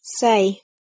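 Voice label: "say", English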